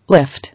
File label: "Lift", English